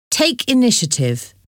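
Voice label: "take initiative", English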